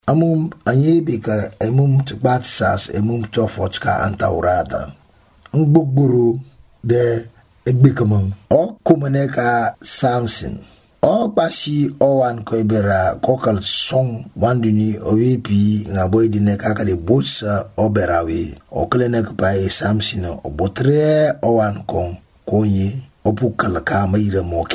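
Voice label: Themne, Sierra Leone; Africa; Stories; FOXES AND JAWBONE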